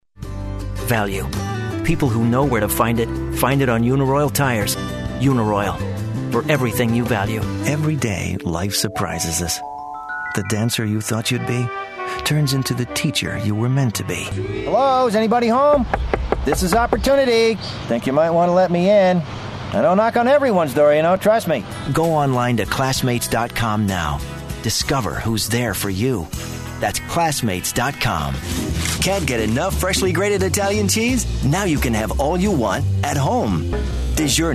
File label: american commercial